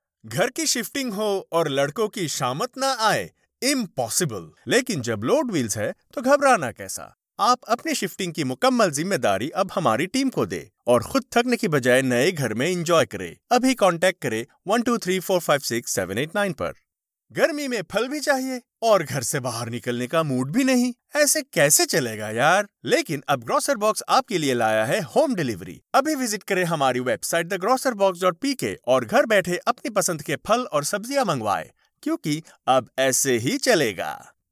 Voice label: Urdu Commercial